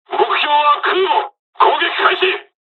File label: dialog, dialogs japanese; us midway; War Thunder